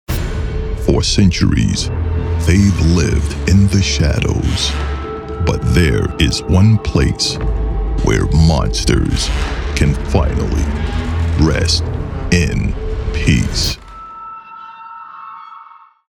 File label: Movie Promo